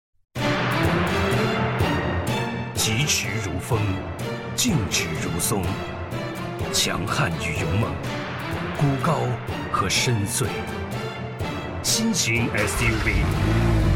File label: foreigner